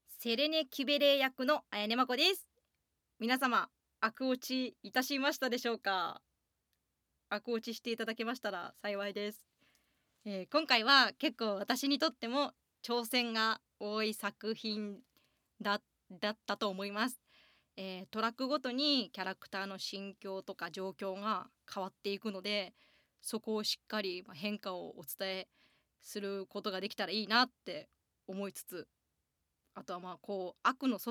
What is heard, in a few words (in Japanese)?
フリートーク, ASMR